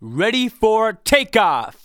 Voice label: "READY FOR TAKEOFF", SPOKEN; Techno Trance Essentials